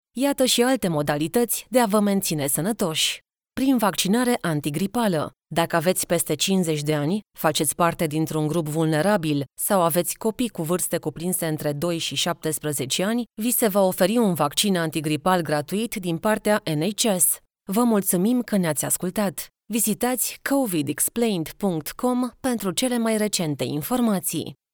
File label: Explainer eLearning